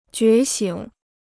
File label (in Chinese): "觉醒", Chinese